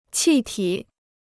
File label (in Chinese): "气体", Chinese